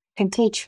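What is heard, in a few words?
Voice Acting es, female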